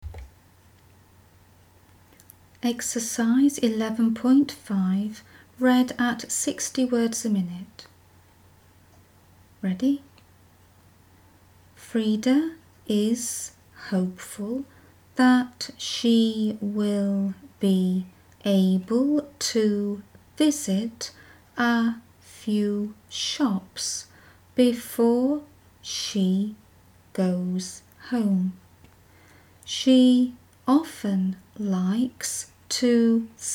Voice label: 60wpm; Teeline